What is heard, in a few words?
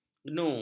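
"gnu", Malay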